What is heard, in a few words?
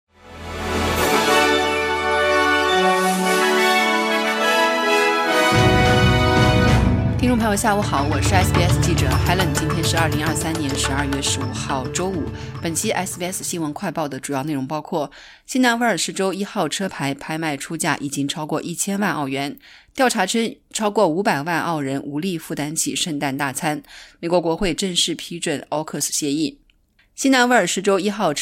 mandarin newsflash; “NSW 1”车牌百年来首度公开拍卖 出价已破1000万澳元; SBS Chinese; SBS新闻快报